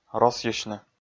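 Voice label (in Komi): "росйысьны", Komi, male; kpv audio dict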